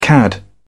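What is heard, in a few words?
"cad", British English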